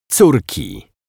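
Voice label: "corki", Polish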